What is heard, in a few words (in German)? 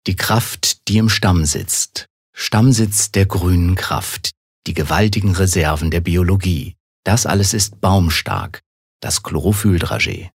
Werbung Sanft